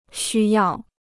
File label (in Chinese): "需要", Chinese